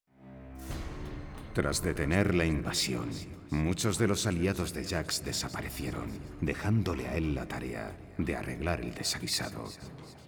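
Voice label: music voice over endings Spanish; jax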